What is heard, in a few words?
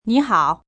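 Hello Bye Mandarin, Greetings, female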